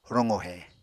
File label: "roqohe", Apatani